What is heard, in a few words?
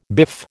"biff", American